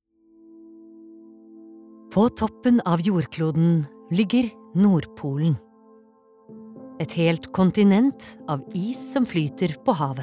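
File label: Norwegian dokumentar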